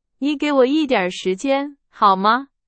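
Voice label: Chinese; hsk2; 好吗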